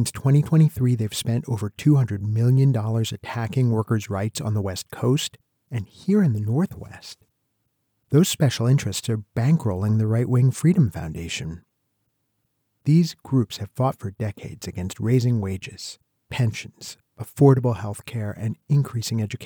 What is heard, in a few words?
voice sample anti union activists